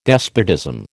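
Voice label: "despotism", American English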